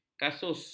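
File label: "kasus", Malay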